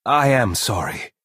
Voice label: Altmer male sorry